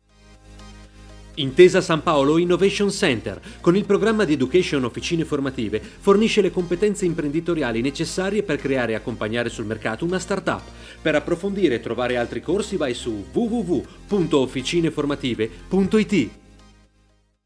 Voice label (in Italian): pubblicità